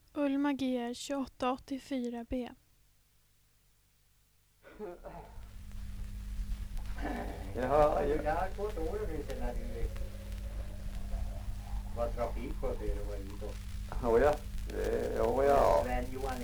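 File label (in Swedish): Ekshärad Värmland, Svenska sagor, dialekter; Folkminnen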